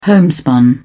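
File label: "homespun", British English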